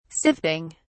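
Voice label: "sifting", British English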